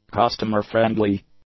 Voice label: "customer-friendly", English; adjectives